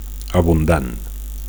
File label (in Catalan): "abundant", Catalan; plentiful